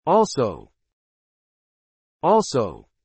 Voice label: "also", English